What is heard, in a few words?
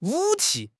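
"武器", Mandarin Chinese; HSK6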